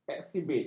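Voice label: "ekshibit", Malay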